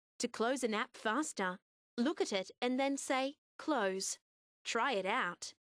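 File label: close, Australian English; Windows; HoloShell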